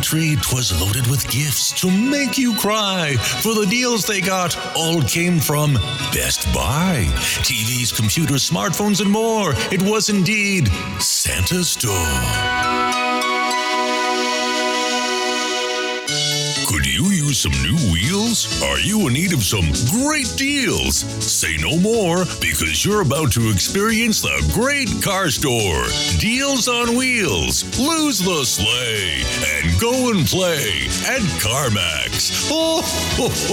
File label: Christmas Spots